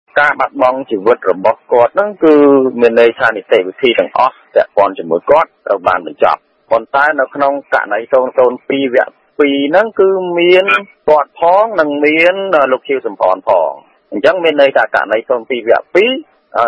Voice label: Vox Pop; rfi; cambodgien; Nuon Chea